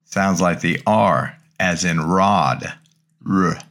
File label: Greek Consonants Rho; greek grammar